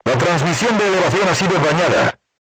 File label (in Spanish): voice message vertical aiming drive damaged, spanish